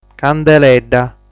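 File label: "Candeledda", Sardinian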